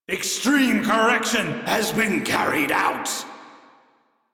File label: Crew Enemy Defeat, Male; Warframe; Railjack Crew; Arbiters Hexis